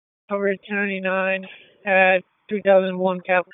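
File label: Raleigh Police Northeast District Dispatch, p25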